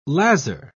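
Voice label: "lazar", English, British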